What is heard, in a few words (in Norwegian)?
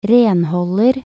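"renholder", Norwegian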